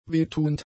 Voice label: "wehtuend", German; adjectives